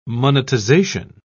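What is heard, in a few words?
"monetization", English, British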